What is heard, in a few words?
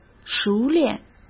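"熟练", Mandarin Chinese; chinese-flashcards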